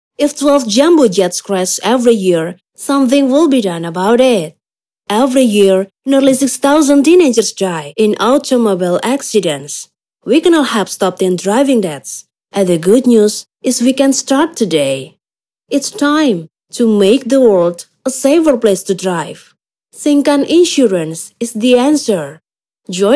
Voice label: ENGLISH FORMAL

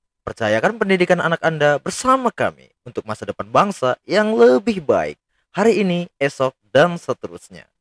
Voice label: Bahasa Indonesia casual bisnis korporate

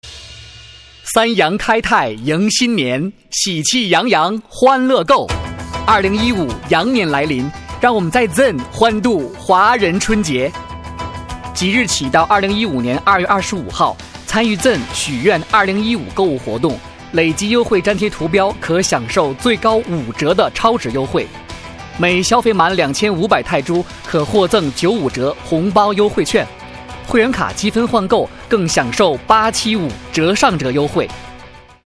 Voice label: MANDARIN CASUAL

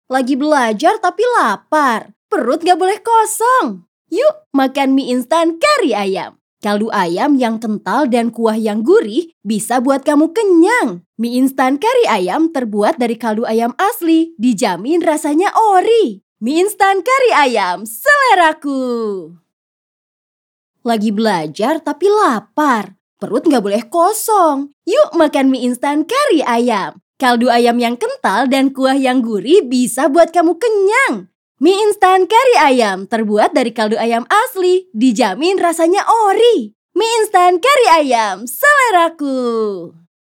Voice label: CASUAL

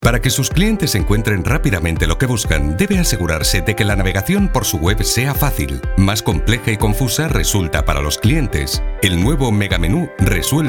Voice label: casual

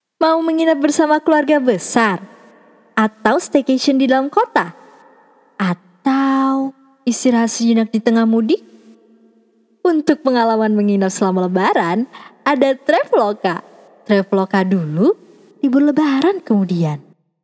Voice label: INDONESIA CASUAL

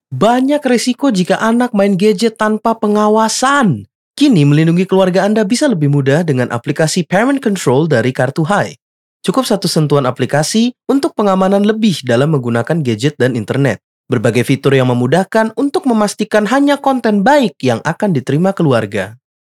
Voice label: Casual Indonesia

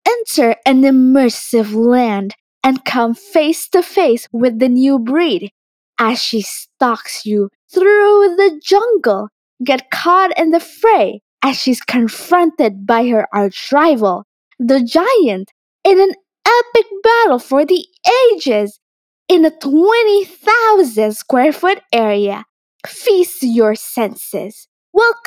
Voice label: ENGLISH CASUAL

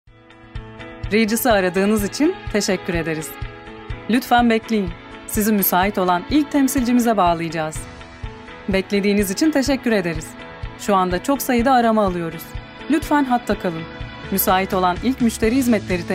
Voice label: TURKEY IVR

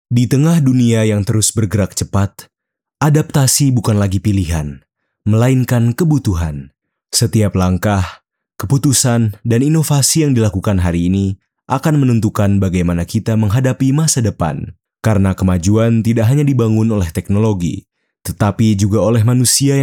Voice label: formal, Indonesia

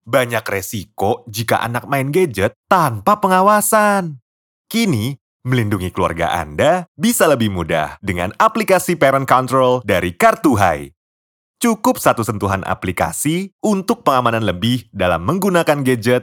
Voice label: Casual Indonesia

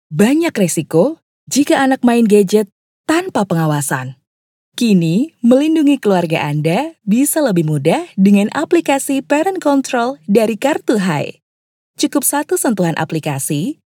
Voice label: CASUAL INDONESIA

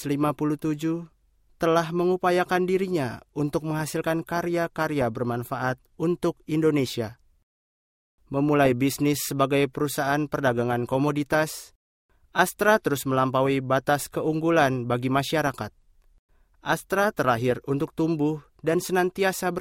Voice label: CORPORATE

